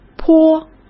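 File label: "泼", Mandarin Chinese; hsk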